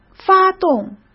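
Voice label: "发动" Mandarin Chinese; hsk; chinese-flashcards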